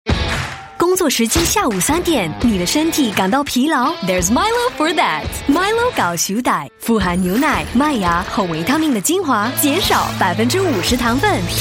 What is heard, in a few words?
Mandarin